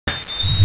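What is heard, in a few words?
"ge1bo5", Mandarin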